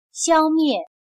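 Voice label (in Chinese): "消灭", Chinese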